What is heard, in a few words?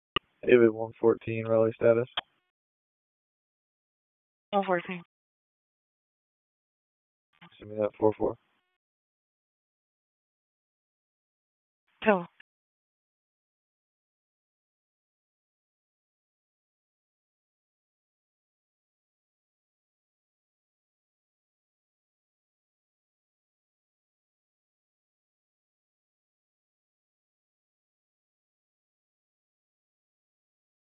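Police Dispatch; Raleigh Police Southwest District Disp; wake; p25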